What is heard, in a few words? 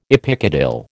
"epicotyl", American